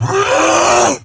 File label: Attack Grineer Wolf, en; Battle Barks